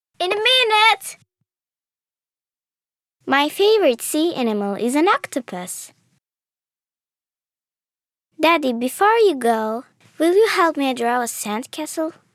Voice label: novakid eng